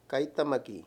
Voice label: "sin", Mayo; Palabras con distintas funciones

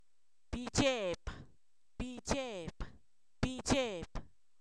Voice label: asustado, Zapoteco, san miguel albarradas; adjetivos

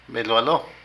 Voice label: Atacar, Zapoteco de Petapa; Vocabulary

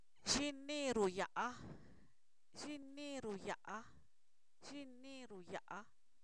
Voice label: baila (él), Zapoteco, san miguel albarradas; verbos; INALI

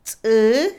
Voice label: cola, Otomí, mujer; Cuerpo; INALI

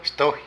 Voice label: Otro, Zapoteco de Petapa; Vocabulary

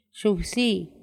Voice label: hígado, Paipai; Vocabulary; INALI

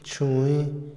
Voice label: "oscuro", Otomí, hombre; Percepción; INALI

